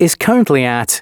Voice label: announcements male